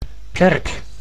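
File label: "kerk", Dutch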